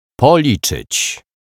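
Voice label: "policzyc", Polish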